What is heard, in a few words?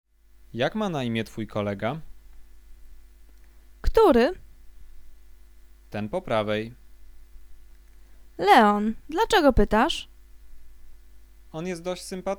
conversation; lektorek; firstyear